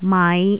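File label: "mai5", Cantonese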